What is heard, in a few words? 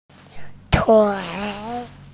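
"tui3", Mandarin Chinese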